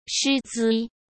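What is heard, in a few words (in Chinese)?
"师资" Mandarin Chinese; chinese-flashcards; hsk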